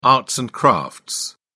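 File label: "arts and crafts", British English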